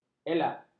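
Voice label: "elak", Malay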